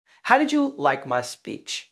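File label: Voice Acting, Spanish, male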